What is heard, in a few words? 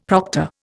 "proctor", Indian; Dictionary